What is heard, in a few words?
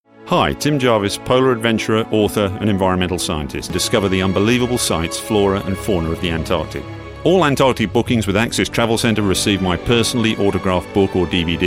Radio advert; Antarctica; RADIO SEGMENT EXCERPTS